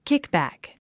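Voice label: "kickback", English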